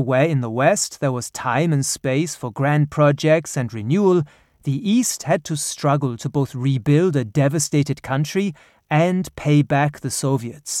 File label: German Accent